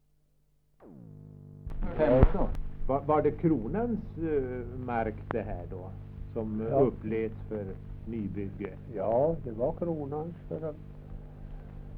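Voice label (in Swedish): Ovanåker Hälsingland, Svenska sagor, dialekter; Folkminnen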